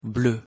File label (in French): pronunciation fr "bleu"